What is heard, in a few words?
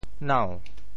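"nau2", Teochew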